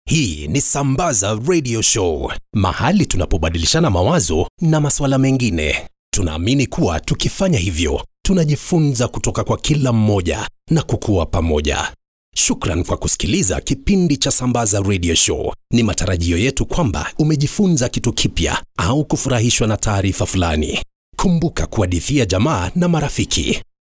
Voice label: swahili narration